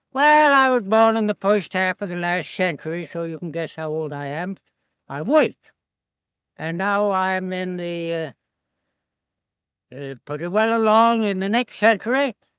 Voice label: Old Film Clip